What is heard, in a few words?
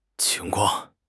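"qing2 kuang4", Mandarin Chinese; HSK3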